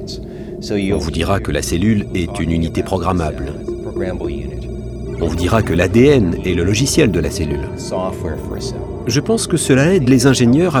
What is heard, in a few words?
biologie 2 0 voice over